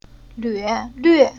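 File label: "Lve", Chinese syllable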